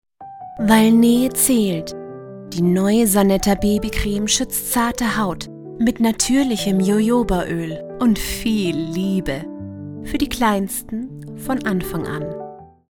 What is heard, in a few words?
commercial; sanetta